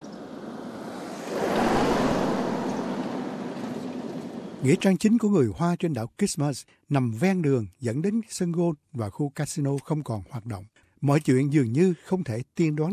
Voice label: vietnamese; gravestones reveal christmas islands long chinese history